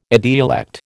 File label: "idiolect", American